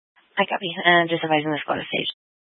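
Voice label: Police Dispatch, Scanner audio; Police 1 Dispatch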